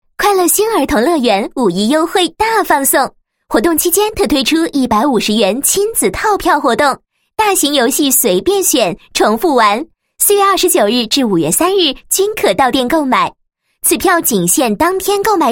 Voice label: 女; 五一快乐星儿童乐园

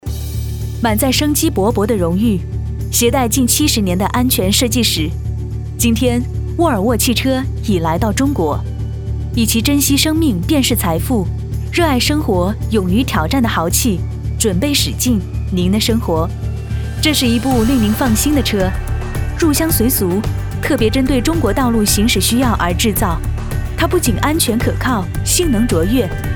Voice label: 女 时尚广告; 沃尔沃

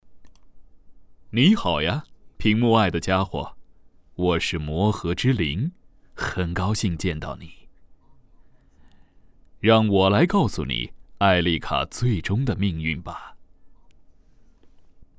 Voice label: 游戏人物旁白, 游戏角色类; 纸境英雄

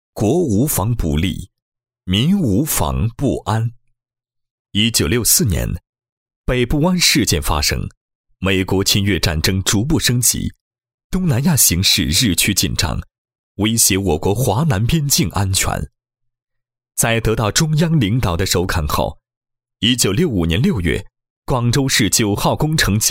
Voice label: 男 项目介绍 专题片配音; 广州地下长城-坑管所

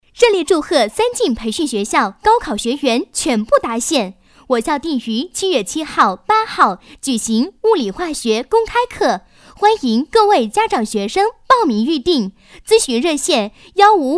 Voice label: 国语女声配音, 经济广告; 三晋培训学校

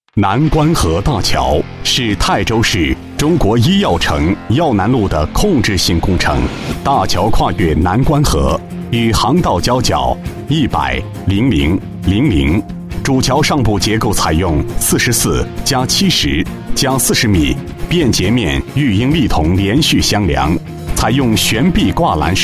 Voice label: 男, 国语男声配音, 男高音; 南官河大桥

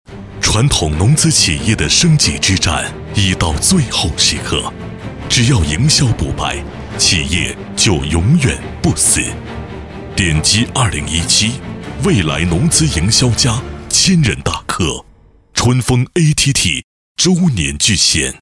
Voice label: 男60号 专题 厚重品质; 春沣ATT; 周年巨献; 交广主播

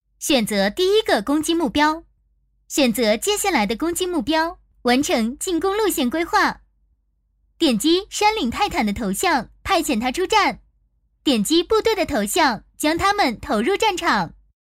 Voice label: 女, 游戏, 语音引导; 泰坦联盟

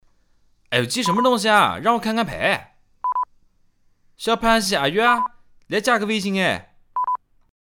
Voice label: 方言, 南京话; 麻将

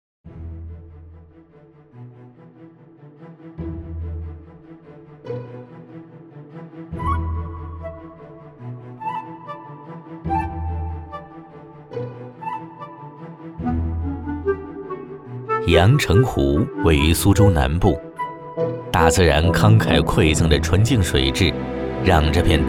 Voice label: 男, 专题, 美食; 阳澄湖

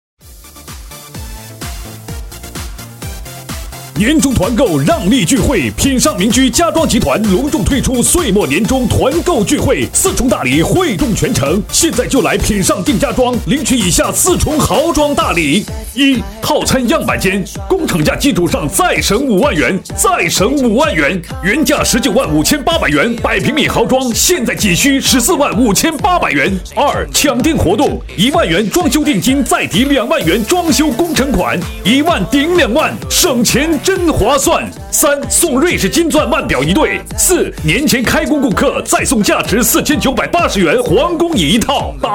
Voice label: 男, 家居装修促销

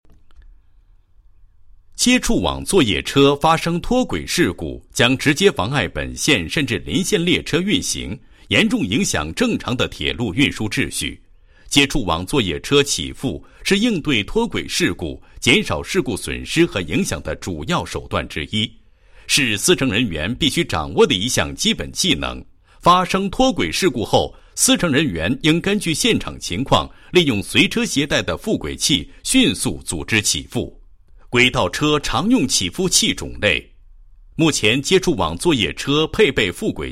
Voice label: 男 解说 教学片; 接触网作业车救援起复

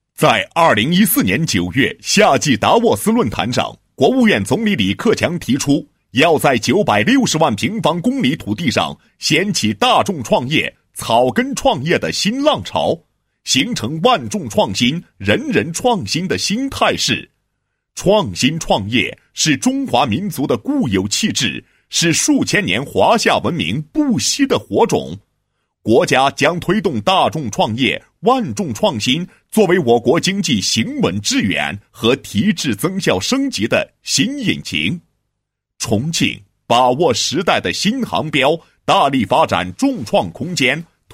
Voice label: 男 脚本 众创空间宣传片脚本; 沙坪坝区

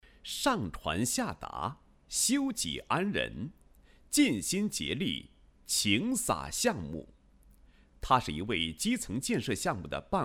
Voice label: 男, 人物; 中铁集团房建项目办公室主任李某

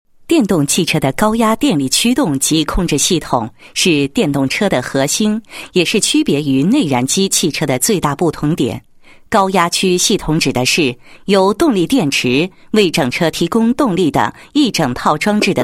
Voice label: 女, 解说; 电动汽车的高压电力驱动及控制系统

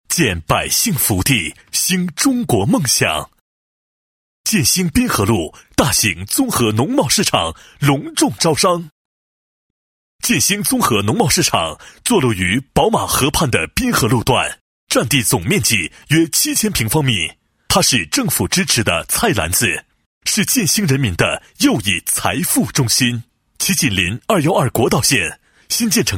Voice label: 男 招商; 建兴综合农贸市场

